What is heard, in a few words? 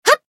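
attack, Japanese; violet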